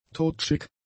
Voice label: "todschick", German; adjectives